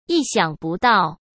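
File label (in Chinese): "意想不到", Mandarin Chinese; hsk; chinese flashcards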